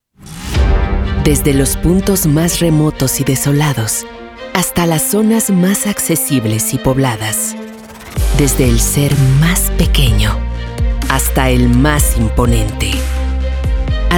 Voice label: Tv Promo Documental; Nat Geo

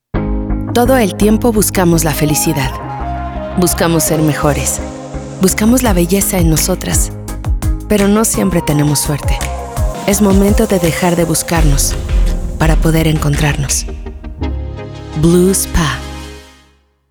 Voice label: Introspectiva Confidente Natural

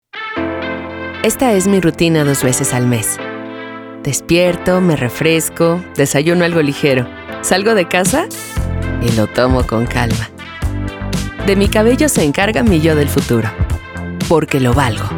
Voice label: Autentica Casua voz suave

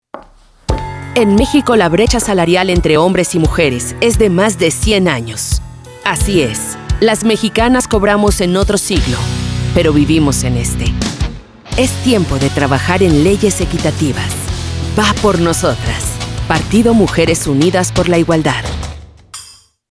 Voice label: Politica Confiable Feminista

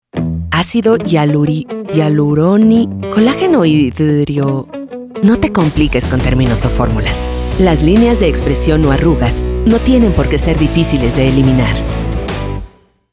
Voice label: Mujer Confiable Real

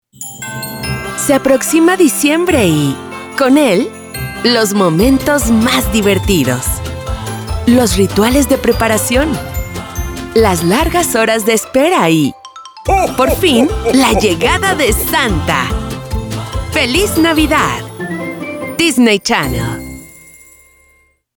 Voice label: TV Promo Canal niños

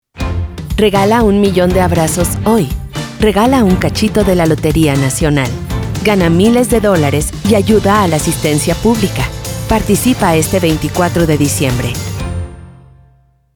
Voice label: Amable Suave

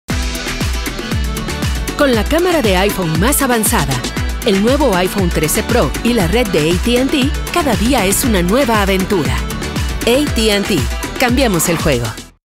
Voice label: Mujer joven

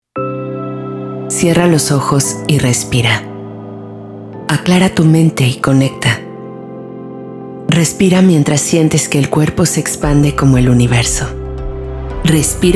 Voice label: Calida Introspectiva Meditacion

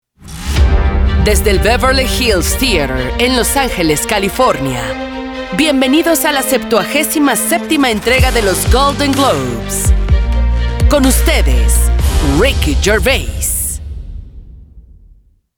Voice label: Voz en off en espanol

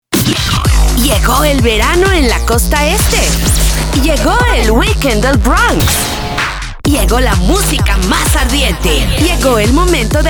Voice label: Urbana promo radio latin energetico

